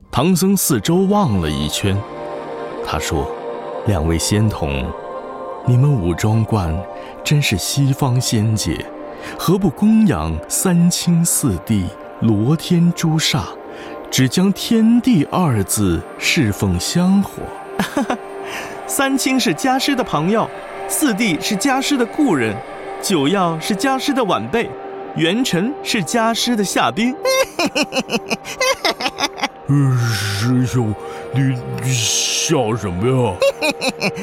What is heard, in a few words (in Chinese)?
一人演绎; 西游记